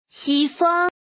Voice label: woman